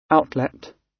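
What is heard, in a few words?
"outlet", British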